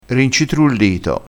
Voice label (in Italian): "rincitrullito", crespinese